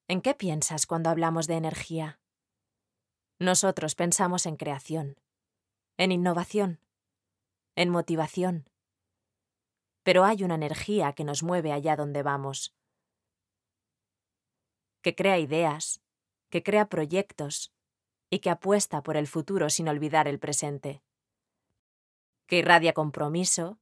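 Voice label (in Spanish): corporativo España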